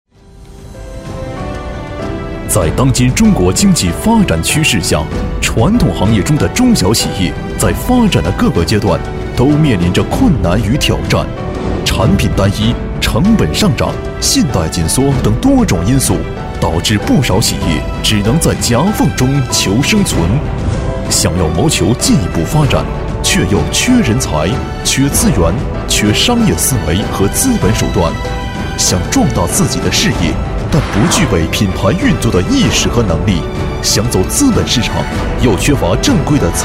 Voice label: 男 专题; 金融行动

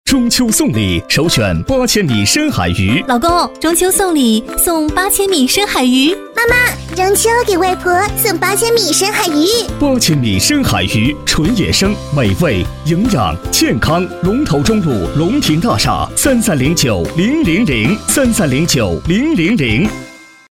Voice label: 男 广告 深海鱼 多人版; 8千米深海鱼

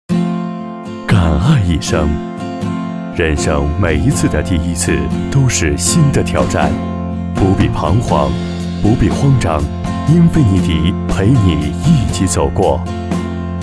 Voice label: 男95-广告-电台广告; 英菲尼迪

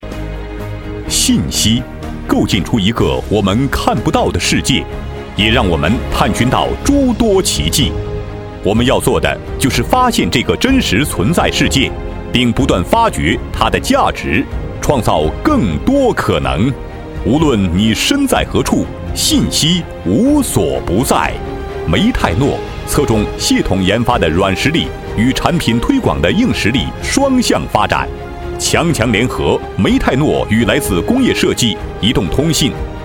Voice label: 男 专题 信息科技

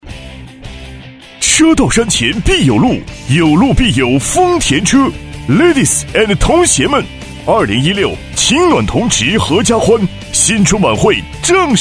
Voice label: 男 片花 激情广告; 新春晚会

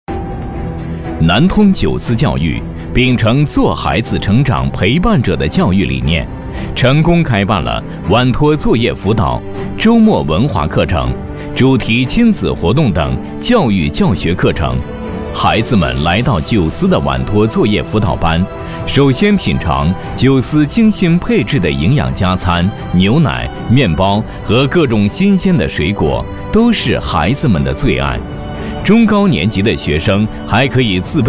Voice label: 男 专题 教育

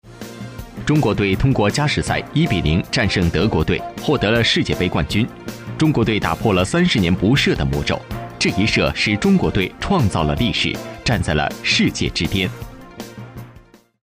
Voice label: 男 新闻 体育频道

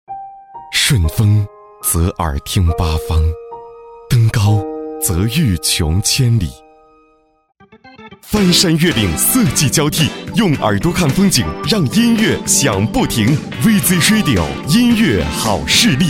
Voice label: 男 广告; 音乐好视力

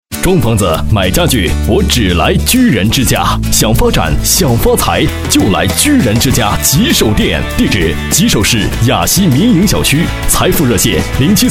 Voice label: 男, 广告; 居然之家

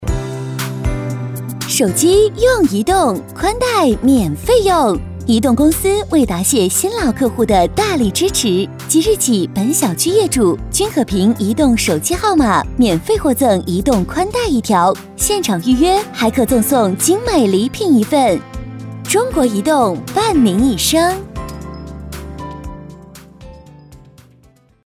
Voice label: 女 促销; 中国移动

